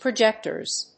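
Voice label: "projector S", English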